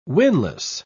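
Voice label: "winless", British English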